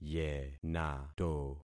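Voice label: "yenato", concatenated syllables; Affixing experiment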